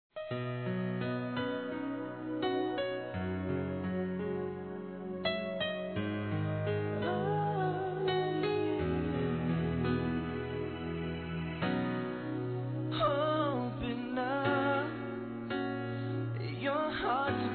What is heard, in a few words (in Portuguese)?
Aniversário Primo Feminino